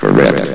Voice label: "correct", vox